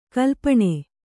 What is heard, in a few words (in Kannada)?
"ಕಲ್ಪನೆ", Kannada